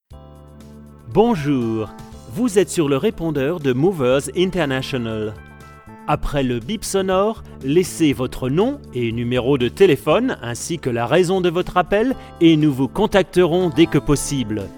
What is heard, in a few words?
Telephone, French Voiceover; Telefonsystem